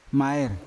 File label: "maier" cuscus, Yawa